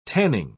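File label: "tanning", British English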